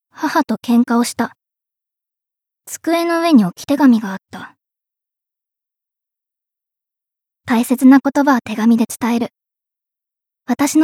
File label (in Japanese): ナレーション